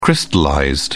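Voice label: "crystallized", British English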